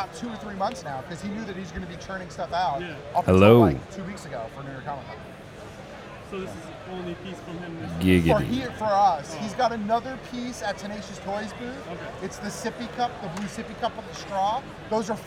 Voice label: Male Radio; NYCC 2013